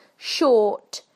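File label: "short", British English; PictureDictionary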